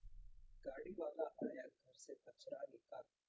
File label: calm hin Fearful generated; GAN for speech spectrogram